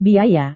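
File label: "biaya", Indonesian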